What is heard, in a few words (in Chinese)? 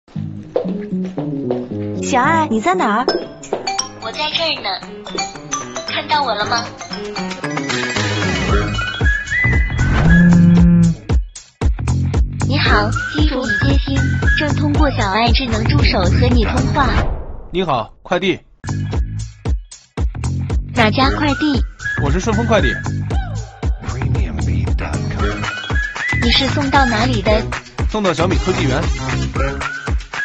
女 广告